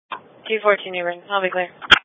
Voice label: Police Patrol 1 (Dispatch)